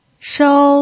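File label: "shou", Mandarin Chinese pinyin, first tone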